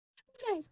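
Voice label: Voice Acting es M, Spanish, male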